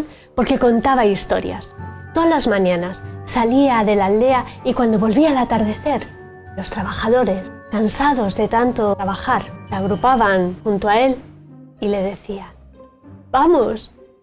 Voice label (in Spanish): cuento narrado